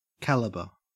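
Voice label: "calibre" English, Australian accent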